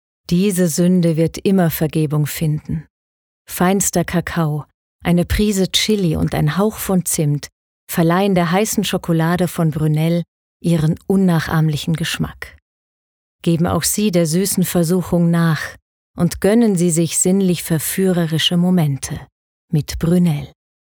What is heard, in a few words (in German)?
Sanft